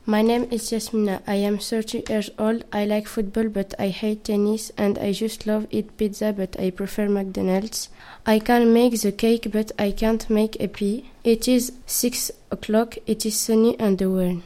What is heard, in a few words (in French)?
meteo anglais; radio prevert